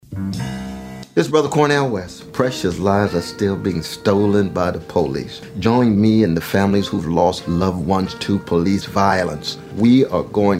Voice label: promo with music; Cornel West; RiseUpOctober